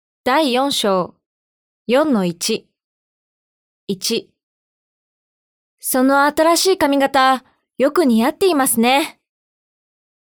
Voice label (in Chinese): 日语 女角色 游戏角色